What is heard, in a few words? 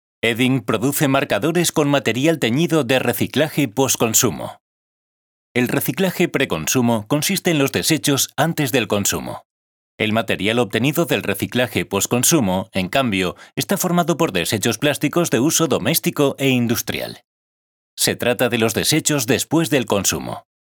Explainer Video; Edding